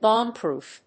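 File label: "bombproof", English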